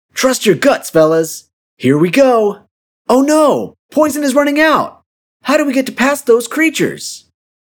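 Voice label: ENGLISH CHARACTER, voice sounds serious and powerful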